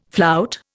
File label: "flout", Indian